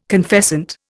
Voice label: "confessant", Indian